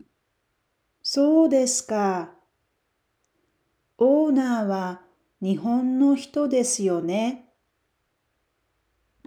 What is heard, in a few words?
japanese Dialogue